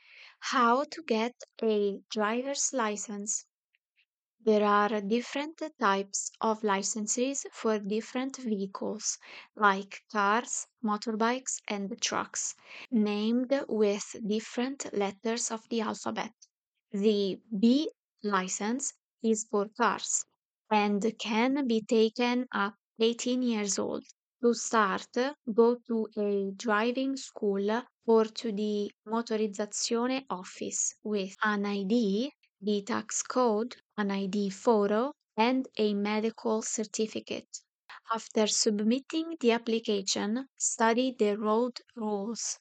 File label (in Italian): patente, inglese